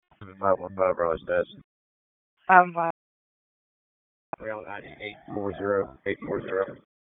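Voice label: Police Southeast District Dispatch, p25; Raleigh; wake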